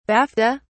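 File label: "bafta", British English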